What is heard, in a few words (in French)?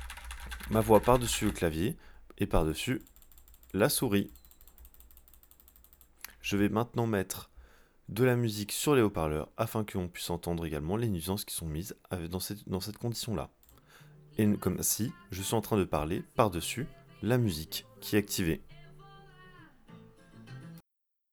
cherry um 9 pro micro stereo